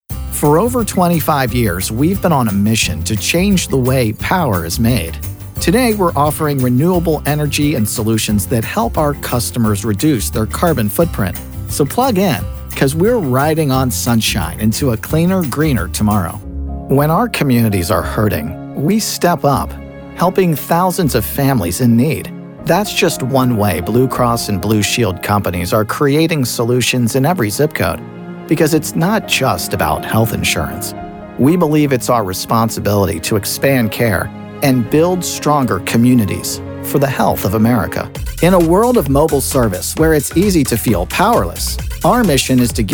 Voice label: Corporate Narration; Corporate Narration Demo